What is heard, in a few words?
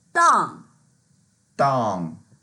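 "dang4", Mandarin Chinese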